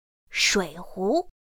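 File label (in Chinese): shuǐhú, Mandarin Chinese; pinyin2; pinyinji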